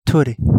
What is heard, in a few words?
Kapingamarangi; ruddy turnstone